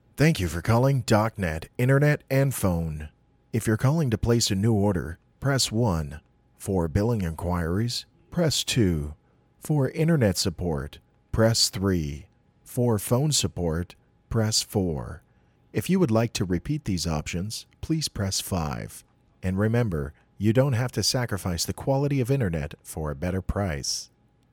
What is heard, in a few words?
intro ivr